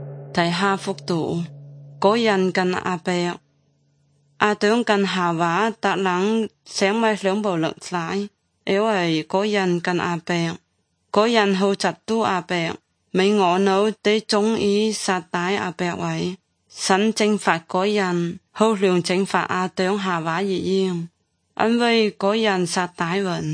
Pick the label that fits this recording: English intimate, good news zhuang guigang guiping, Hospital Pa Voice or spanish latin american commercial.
good news zhuang guigang guiping